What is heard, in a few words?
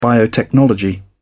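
"biotechnology", British English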